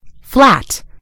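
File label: "flat", British English; gcompris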